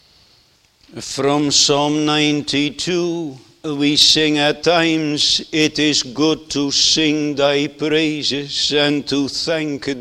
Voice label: Sermon; The Fourth Commandment